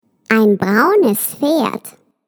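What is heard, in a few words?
GER brown horse, German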